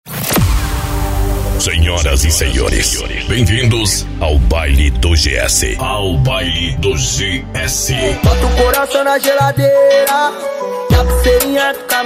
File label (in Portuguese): locutor